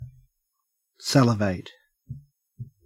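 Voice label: "salivate", Australian English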